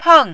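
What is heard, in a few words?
"peng", Mandarin, fourth tone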